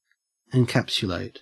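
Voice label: "encapsulate", Australian English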